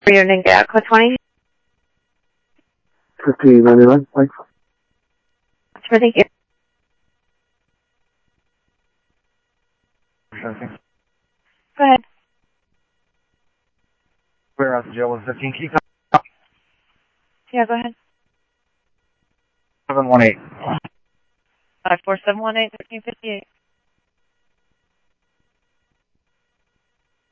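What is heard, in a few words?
Sheriff East County, scanner audio trunk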